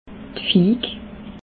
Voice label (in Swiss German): "fig", Alsace